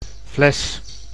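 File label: "fles", Dutch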